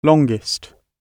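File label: "longest", British English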